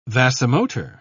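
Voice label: "vasomotor", British English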